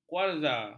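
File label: "kuarza", Malay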